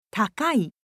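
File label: "takai", Japanese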